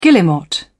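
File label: "guillemot", British English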